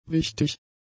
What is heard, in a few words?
"wichtig", German; adjectives